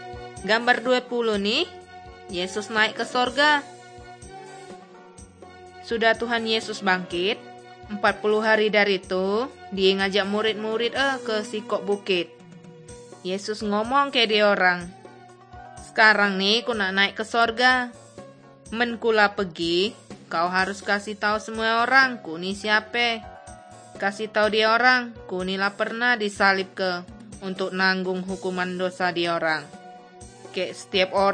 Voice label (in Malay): kabar baik good news malay bangka